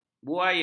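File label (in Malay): "buaya", Malay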